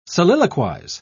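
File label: "soliloquise", English, British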